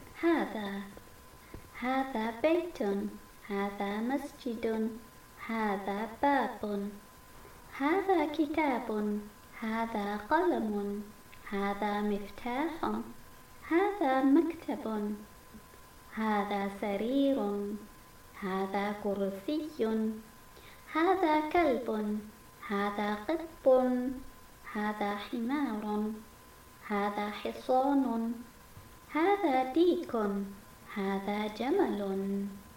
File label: madinah arabic reader